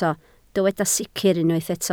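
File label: "sicr" Welsh, spoken word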